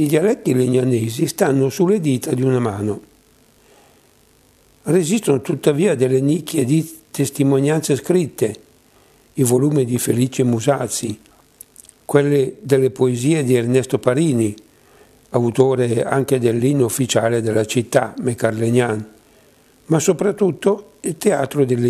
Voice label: dialetto

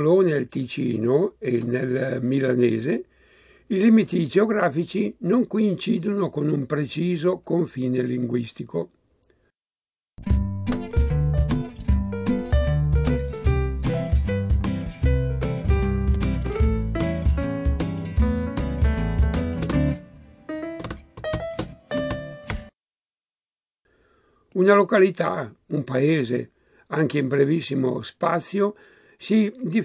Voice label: dialetto legnanese

